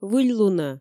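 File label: "выльлунӧ", Komi, female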